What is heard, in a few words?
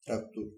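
tractor, Bulgarian; tuxpaint-stamps; vehicles; farming; cartoon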